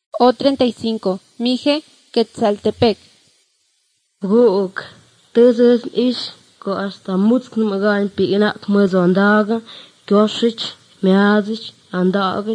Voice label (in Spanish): spanish mexico